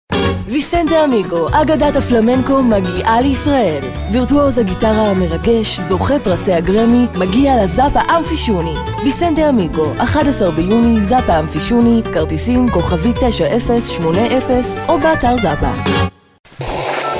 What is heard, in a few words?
Hebrew